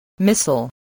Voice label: "missile", English

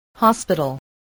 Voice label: "hospital", voice spelling

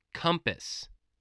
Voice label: "compass", english

